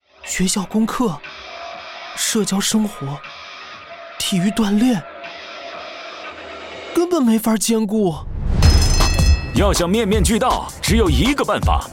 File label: Mandarin Ad; 双点校园; 电台广告